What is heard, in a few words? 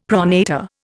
"pronator", Indian; Dictionary